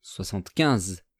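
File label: "soixante-quinze", French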